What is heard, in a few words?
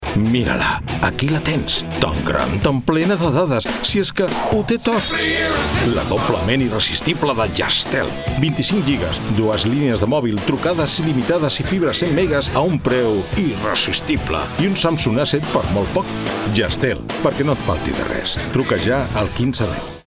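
Catalan, General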